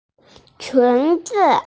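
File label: "qunzi", Mandarin Chinese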